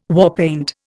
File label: "warpaint", Indian